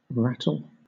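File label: "rattle", British English; pronunciations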